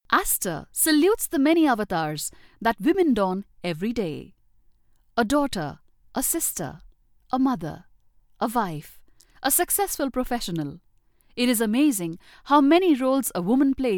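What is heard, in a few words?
narration English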